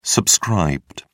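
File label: "subscribed", British English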